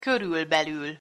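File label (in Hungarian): "körülbelül", Hungarian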